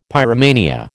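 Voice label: "pyromania", American English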